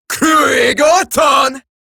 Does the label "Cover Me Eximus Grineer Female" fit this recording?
Yes